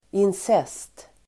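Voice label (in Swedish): "incest", Swedish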